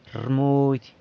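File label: "rmudy", San Lucas Quiavini Zapotec; medicine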